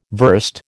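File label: "verst", American English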